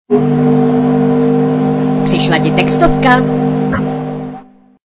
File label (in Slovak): sms hlásky cz